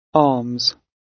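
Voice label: "arms", British English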